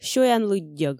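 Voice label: "сёянлыддьӧг", Komi, female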